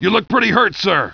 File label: hurt; fgrunt